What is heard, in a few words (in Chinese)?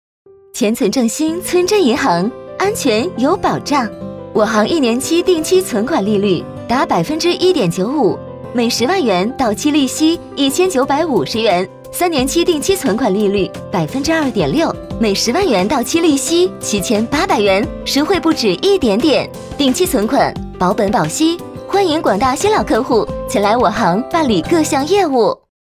女 广告; 银行